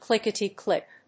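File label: "clickety-click", English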